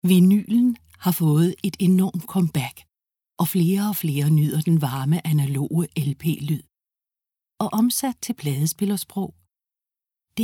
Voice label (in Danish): DK Tekst